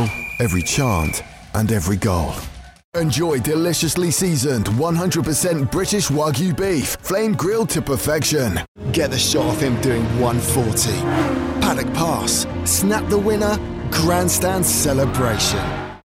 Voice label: london regional accent